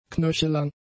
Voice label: "knöchellang", German; adjectives

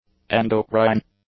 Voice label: "endocrine", English; adjectives

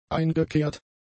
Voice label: "eingekehrt", German; adjectives

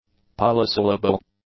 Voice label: "polysyllable", english; adjectives

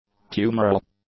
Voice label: "humeral", English; adjectives

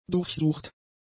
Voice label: "durchsucht", german; adjectives

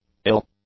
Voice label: "ill", English; adjectives

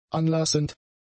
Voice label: "anlassend", German; adjectives

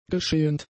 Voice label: "geschehend", German; adjectives

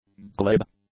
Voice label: "glib", english

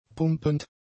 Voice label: "pumpend", German; adjectives

